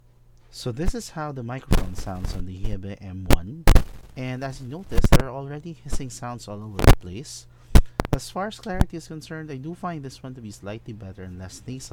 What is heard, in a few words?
RGB Mic Test; Gamdias Hebe M1